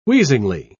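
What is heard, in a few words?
"wheezingly", British English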